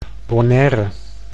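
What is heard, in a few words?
"Bonaire", Dutch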